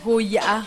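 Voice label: "guyaa", Teotitlán del Valle Zapotec; Zapotec Talking Dictionary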